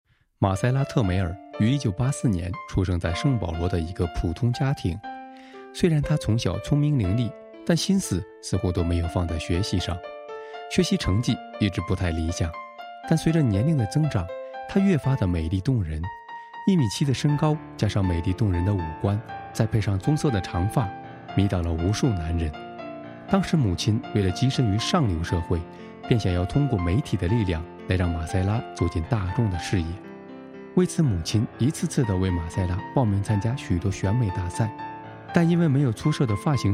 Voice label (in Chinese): 轻快好听; 朝城